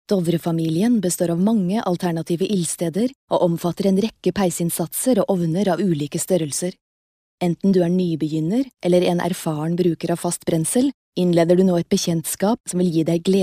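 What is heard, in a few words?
Norwegian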